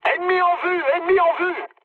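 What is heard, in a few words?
French enemy detected mood med; War Thunder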